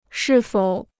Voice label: "是否", Chinese